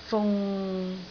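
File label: "fung6", Cantonese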